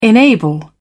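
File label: "enable", British English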